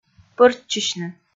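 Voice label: "пӧрччысьны", Komi, female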